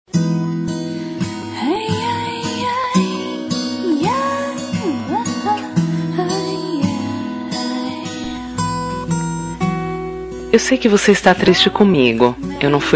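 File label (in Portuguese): Rom pisei na bola, feminino; Telemensagens; Românticas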